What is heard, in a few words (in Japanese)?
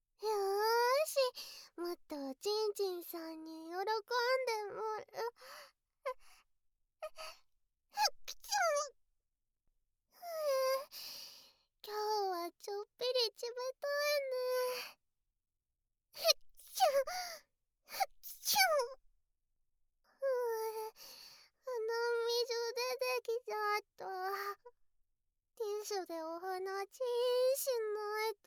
低速～高速のしゅこしゅこボイス; 鼻水コキ!ちびっ子の鼻水はローション替わりです!